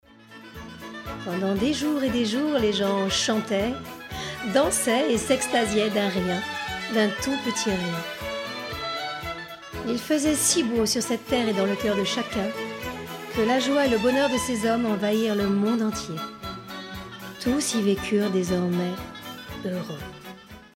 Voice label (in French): Narration Conte Voix enjouee; Magicienne